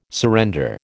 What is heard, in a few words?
"surrender", American